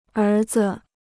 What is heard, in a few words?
"儿子", Chinese